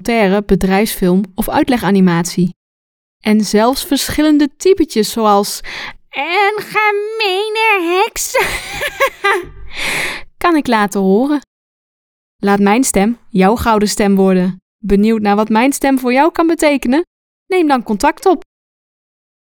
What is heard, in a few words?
commercial